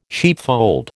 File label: "sheepfold", American